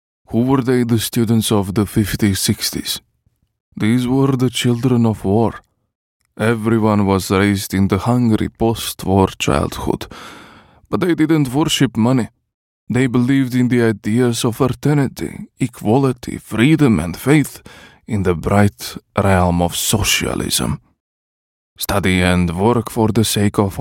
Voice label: croatian voiceover video game, Engaging Croatian Male